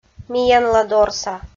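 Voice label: "миянладорса" Komi, female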